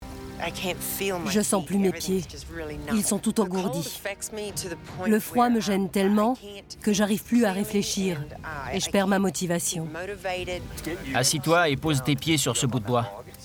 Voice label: Caveman, voice over